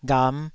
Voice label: "gham5", Taiwanese syllable

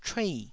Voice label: "chuei4", Taiwanese

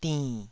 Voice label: "dinn3", Taiwanese

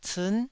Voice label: "ciin9", taiwanese

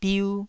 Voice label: "biu1", taiwanese

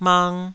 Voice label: "mang2", Taiwanese syllable

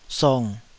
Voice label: "song3", taiwanese Syllables

